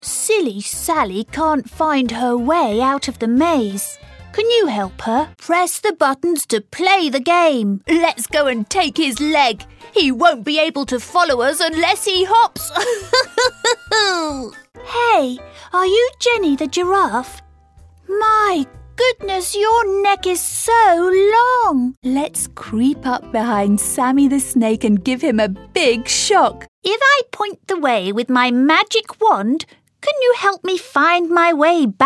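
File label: British, Toys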